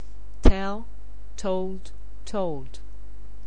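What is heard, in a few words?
"tell", English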